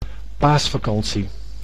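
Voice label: "paasvakantie", Dutch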